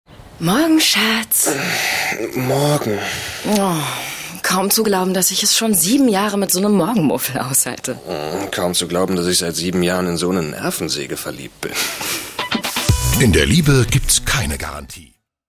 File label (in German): Ironisch